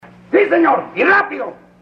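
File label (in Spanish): "si señor y rapido", Spanish, male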